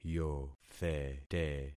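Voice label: "yothete", concatenated syllables; Affixing experiment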